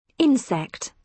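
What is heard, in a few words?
"insect", British English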